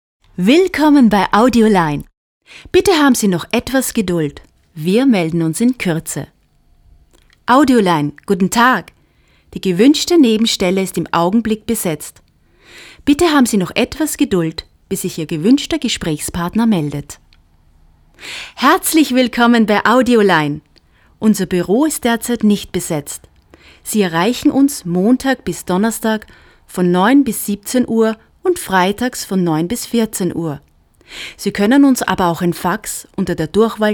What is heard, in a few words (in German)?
Band Ansage Deutsch; Sprecherin für Werbung und Moderation